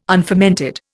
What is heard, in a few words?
"unfermented", Indian